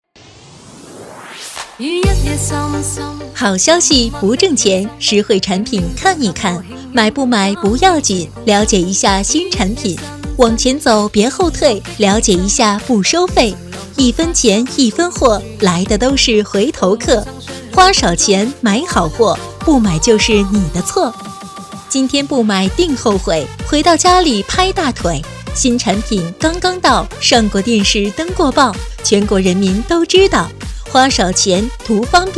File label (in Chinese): 摆地摊广告